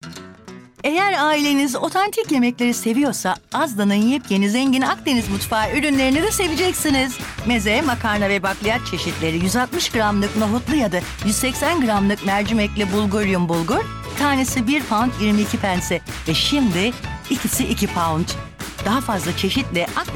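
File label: Turkish, Commercial; Golocalise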